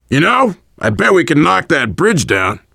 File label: world farmhouse, voice, biker